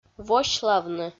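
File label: "восьлавны", Komi, female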